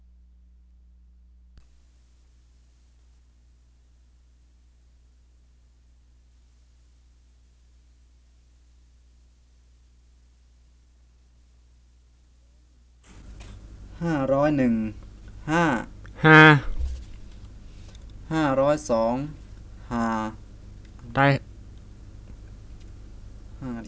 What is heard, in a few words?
Bisu or Misu Wordlist; 1-500